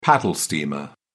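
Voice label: "paddle steamer", British English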